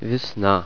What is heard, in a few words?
spring, russian